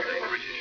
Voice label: british, EVP